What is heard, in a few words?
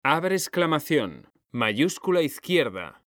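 "abrir exclamacion shift izq", Spanish; mekanta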